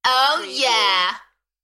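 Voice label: UK female, Enthusiastic, DJ vocals; Oh yeah; beanstalk audio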